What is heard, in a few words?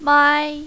"mai5", mandarin, female